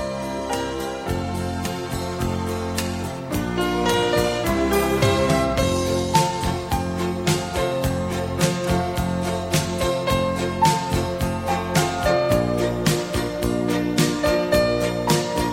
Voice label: Feliz Natal e Ano Novo pra Pai, Infantil

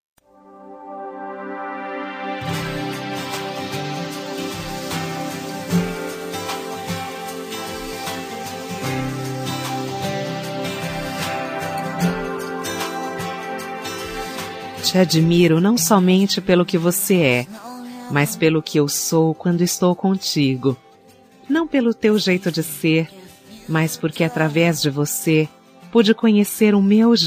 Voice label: Amizade Com Declaração de Amizade F

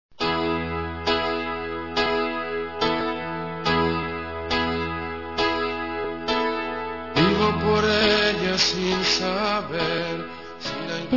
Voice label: Romântica Com Declaração, F

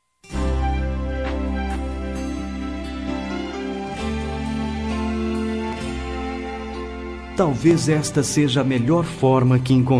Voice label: Romântica Com Reconciliação

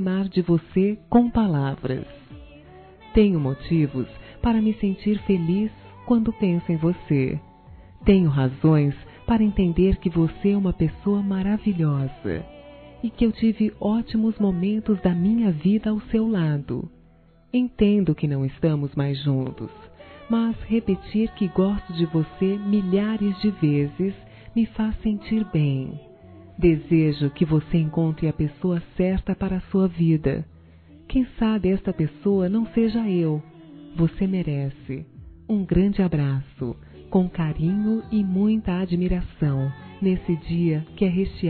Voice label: Namorados pra Ex Namorado, F

